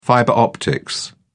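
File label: "fiber optics", English, British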